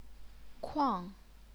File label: "kuang4", chinese pinyin